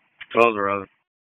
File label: PS TAC, p25